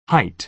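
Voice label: "height", American English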